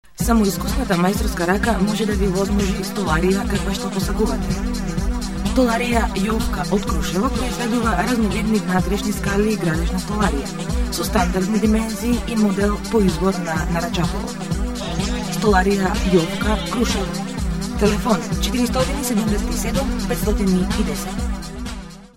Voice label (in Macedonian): reklama stolarija; novinarska fela